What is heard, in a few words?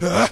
"uh huh" RAH